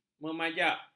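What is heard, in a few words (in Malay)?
"memajak", Malay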